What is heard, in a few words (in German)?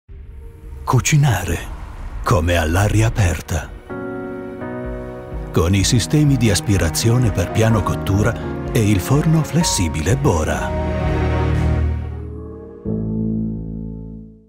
Werbespot Italienisch, Commercial (Werbung), Italian; Bora; German